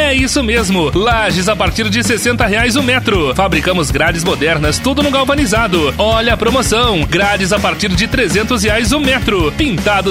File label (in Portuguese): LOCUTOR COMERCIAL